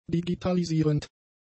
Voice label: "digitalisierend", german; adjectives

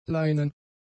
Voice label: "leinen", German; adjectives

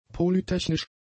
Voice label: "polytechnisch", German; adjectives

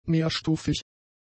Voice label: "mehrstufig", German; adjectives

